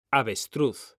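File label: "avestruz", Spanish, male; mekanta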